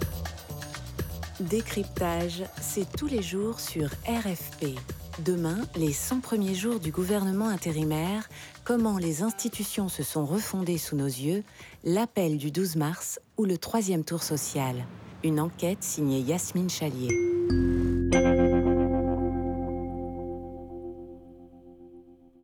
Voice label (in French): Voix Radio